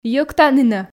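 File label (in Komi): "йӧктанінӧ", Komi, female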